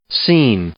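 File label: "seen", English, male; verb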